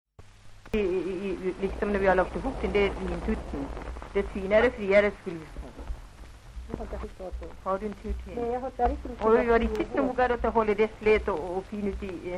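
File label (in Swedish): Järbo Gästrikland, dialekter; Folkminnen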